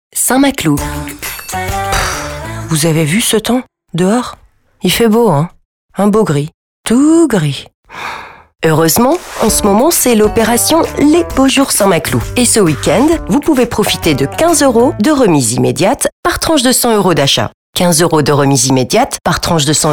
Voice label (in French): Pub radio; St maclou beaux jours